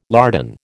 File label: "lardon", American